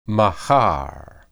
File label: "mahar", Hebrew